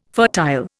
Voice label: "fertile", Indian